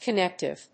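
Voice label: "connective", English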